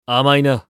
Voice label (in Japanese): 回避・パリィ1, クール系ボイス; 戦闘ボイス